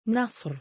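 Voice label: "na9r", Arabic, male; given name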